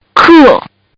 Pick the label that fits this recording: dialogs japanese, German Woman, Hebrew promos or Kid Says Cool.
Kid Says Cool